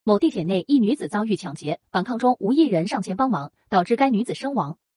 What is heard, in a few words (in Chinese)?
新闻报道